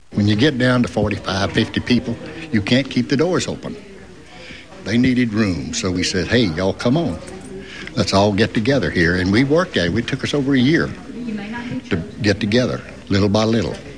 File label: Texas male, dialect